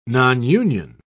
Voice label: "nonunion", en uk